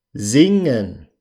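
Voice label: "singen", German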